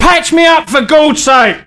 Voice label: Medic, male; svencoop

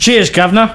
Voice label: Thanks, male; svencoop

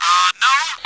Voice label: radio no

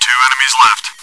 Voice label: chitchat, bot, voice commands; svencoop

